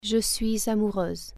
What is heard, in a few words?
"i am in love" female, French